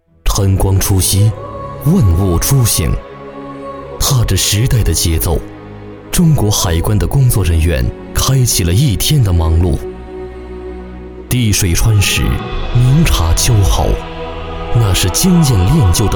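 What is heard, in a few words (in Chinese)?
国语 男声 专题片 大气浑厚磁性 沉稳 娓娓道来; 中国海关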